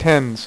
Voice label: "tens", dealer's speech; PowerPOKER Demo